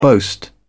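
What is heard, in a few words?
"boast", British English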